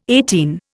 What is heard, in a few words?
"eighteen", Indian